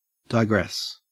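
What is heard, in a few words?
"digress", Australian English; pronunciations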